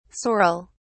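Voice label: "saurel", English, British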